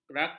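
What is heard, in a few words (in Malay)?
"kerakah", Malay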